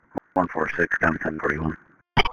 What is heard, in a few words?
Police Sheriff Dispatch